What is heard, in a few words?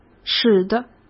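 "使得", Mandarin Chinese; chinese flashcards; hsk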